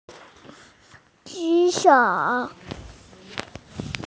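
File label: "raise hand", female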